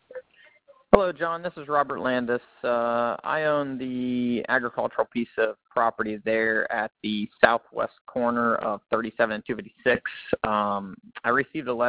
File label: Voice Message